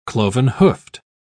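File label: "cloven-hoofed", British English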